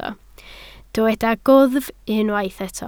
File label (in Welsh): "gwddf", Welsh